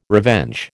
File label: "revenge", American